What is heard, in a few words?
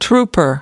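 "trooper", US English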